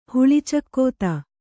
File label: "ಹುಳಿಚಕ್ಕೋತ", Kannada